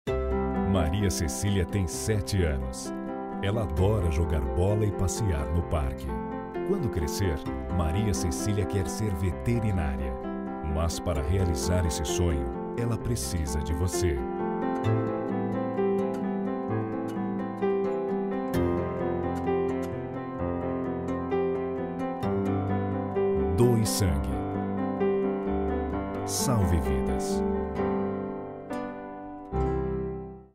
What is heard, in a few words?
portuguese brazilian tv shows